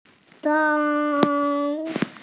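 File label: "zang1", Mandarin Chinese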